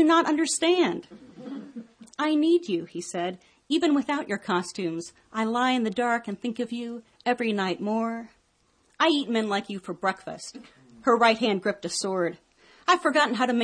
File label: conversation